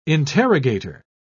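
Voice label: "interrogator", British English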